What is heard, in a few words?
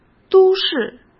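"都市", Mandarin Chinese; chinese flashcards; hsk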